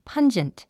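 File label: "pungent", English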